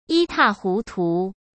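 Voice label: "一塌糊涂", Mandarin Chinese; chinese-flashcards; hsk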